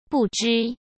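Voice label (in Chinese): "不知", Mandarin Chinese; hsk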